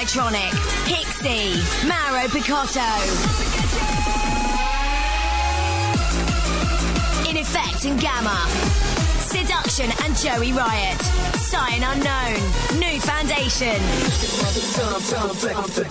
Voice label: Pirate Radio Ad, Happy Hardcore; Hardcore Nation 3